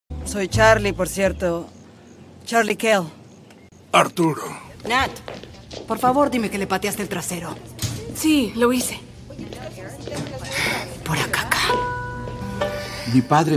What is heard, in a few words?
character spanish